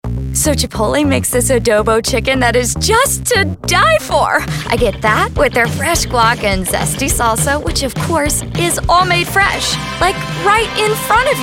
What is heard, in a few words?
Commercial